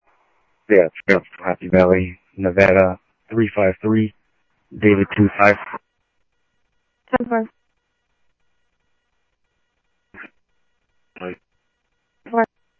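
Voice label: police department, scanner audio trunk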